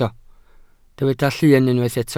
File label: "llun", Welsh